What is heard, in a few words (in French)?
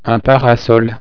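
"parasol", French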